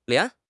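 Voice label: "lian2", Mandarin Chinese; HSK4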